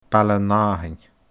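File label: "Baile an Àthain", Scottish Gaelic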